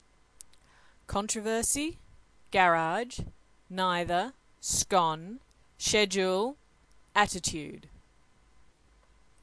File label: Toowoomba Australia female